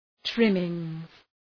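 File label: "trimmings", English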